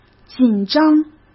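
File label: "紧张", Mandarin Chinese; hsk; chinese-flashcards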